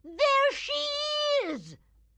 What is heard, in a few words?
grandma mothercone close; Blind Drive